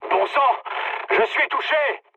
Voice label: aircraft destroyed mood med, speech fr

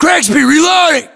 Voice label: US inform reloading, battlechatter, english

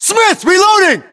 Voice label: inform reloading, US

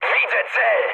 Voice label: enemy detected mood high, speech pol; War Thunder

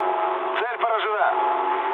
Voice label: bomb success, mood low, speech ru; War Thunder

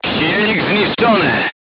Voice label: voice message engine destroyed, polish

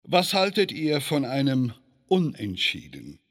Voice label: Peaceful, Speech; Civ5; Bismark

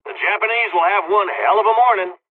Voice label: dialog, dialogs serbian; War Thunder

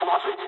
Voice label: jp trick, speech jp

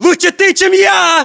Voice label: inform killfirm infantry, battlechatter ru; localized english

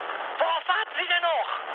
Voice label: attack position, mood high, speech ge

